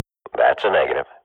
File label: radio bot reponse negative, Counter-Strike swat